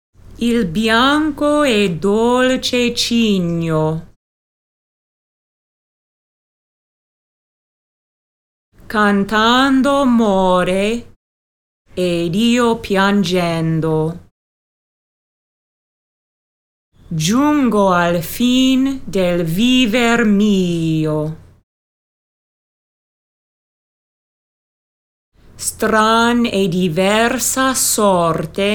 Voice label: fast speech